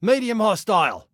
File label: Warn, Male; Male 02